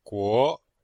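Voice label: "guo2", Chinese; Cours-de-Chinois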